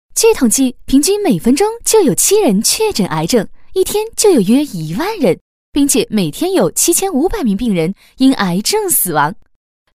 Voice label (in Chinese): 女 诙谐 幽默 风趣; 飞碟说